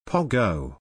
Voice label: "PogO" pronunciation